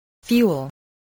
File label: "fuel", English voice, spelling